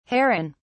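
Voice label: "heron(s)", British English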